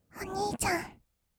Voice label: おにいちゃん, kawaii voice; ASMR; 二人称; 1200 kawaii voice!!

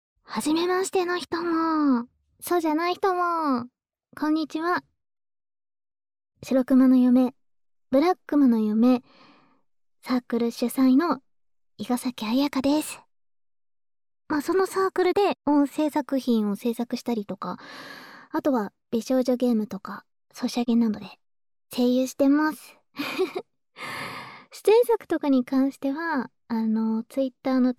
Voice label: フリートーク

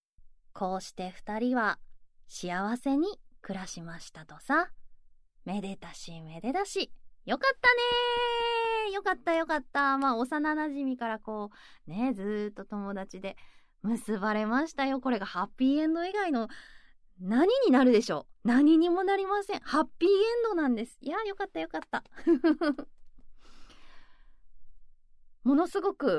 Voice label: フリートーク, ASMR

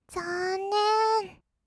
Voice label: "ざーんねーん", kawaii voice; ASMR; 色々なワンショット

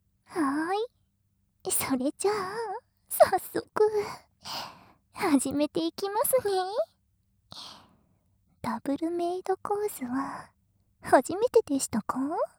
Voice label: Wメイドコース, ASMR

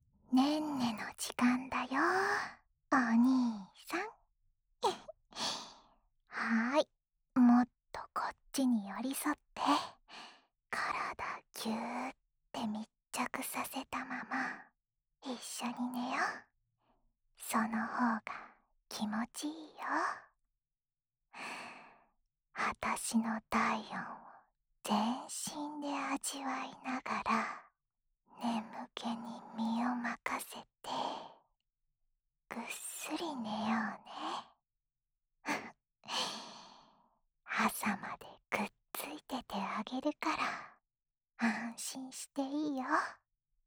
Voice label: 安眠用添い寝（右耳）, ASMR

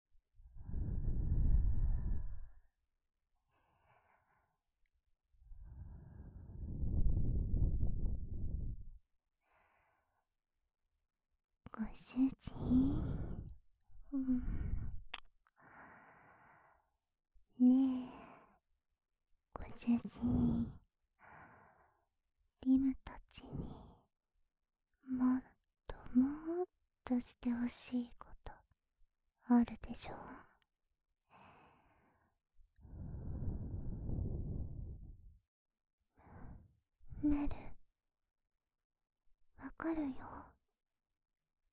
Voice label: ささやき耳舐め, ASMR; ささやき耳舐め足コキ

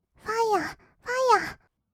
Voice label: ファイア ファイア, kawaii voice; 音ゲー

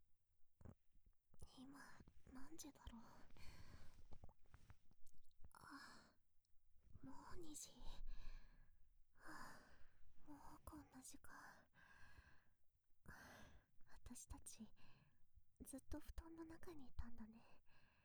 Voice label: ピロートーク, ASMR; 二人だけの秘密ね

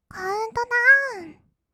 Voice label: カウントダウン, kawaii voice; 音ゲー

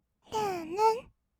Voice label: るんるんっ, kawaii voice; ASMR; 色々なワンショット

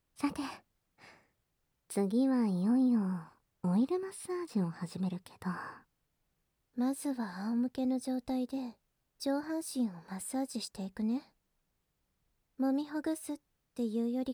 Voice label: ラブラブ上半身オイルマッサージ (SE無し), ASMR

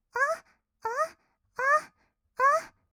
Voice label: おっおっ, kawaii voice; ASMR; 合いの手; 1200 kawaii voice!!

